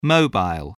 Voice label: "mobile", voice, spelling